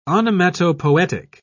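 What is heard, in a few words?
"onomatopoetic", British English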